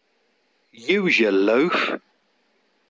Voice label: use your loaf, kent uk; rainham